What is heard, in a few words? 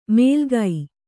"ಮೇಲ್ಗೈ", Kannada